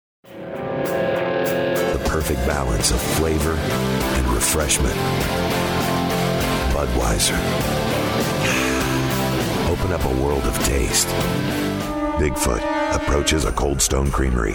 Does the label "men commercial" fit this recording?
Yes